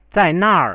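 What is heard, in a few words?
"zainar", Chinese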